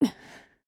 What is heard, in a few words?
LOW MOOD JP; Beowulf